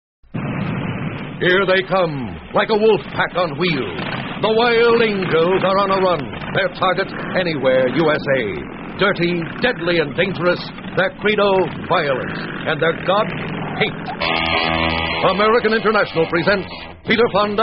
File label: movie promo, radio spots; the wild angels; psychedelic promos and radio spots